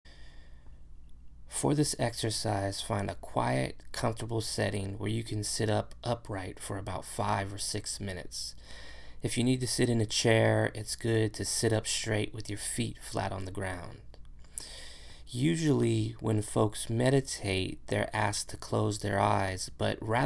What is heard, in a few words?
breathing